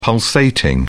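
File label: "pulsating", British English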